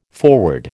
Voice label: "foreword", American

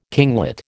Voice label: "kinglet", American

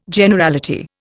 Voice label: "Generality", Indian

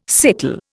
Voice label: "sickle", Indian

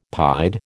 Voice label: "pied", American

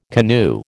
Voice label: "canoe", American

